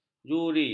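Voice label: "juri", Malay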